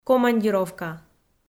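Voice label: "командировка" Komi, female; audio dict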